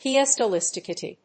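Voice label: "piezoelectricity", English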